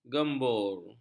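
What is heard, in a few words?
"gembur", Malay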